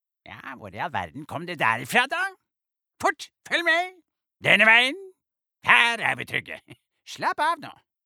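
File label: Norwegian dub, mann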